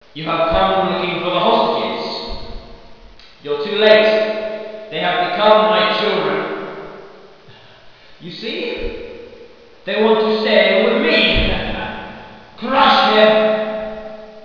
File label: evil; gman